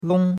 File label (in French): "long1", chinois; Cours-de-Chinois